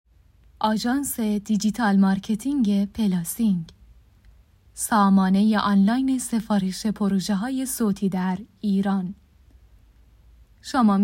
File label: narrator